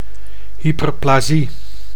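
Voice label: "hyperplasie", Dutch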